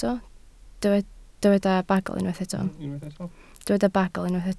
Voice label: "bagl", Welsh